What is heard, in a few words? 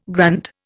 "grand", Indian